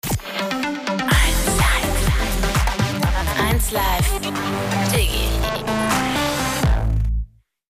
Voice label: Dance Elektro Happy; 1LIVE DIGGI